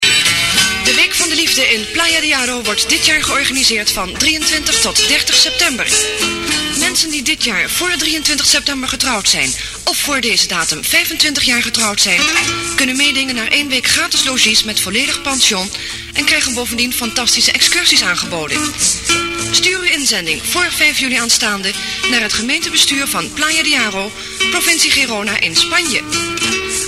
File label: commercial